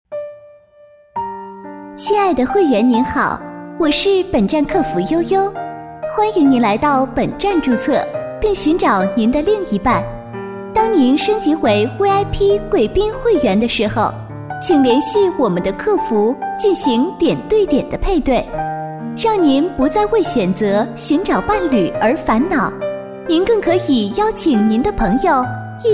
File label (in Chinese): 语音导游 甜美客服